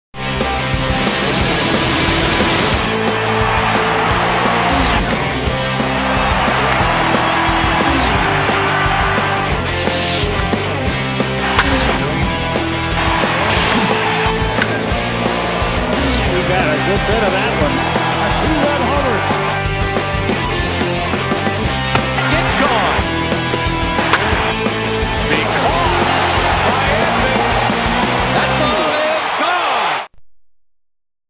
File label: promo; mlb